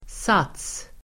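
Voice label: "sats", Swedish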